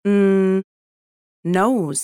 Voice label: babys sound audio NOSE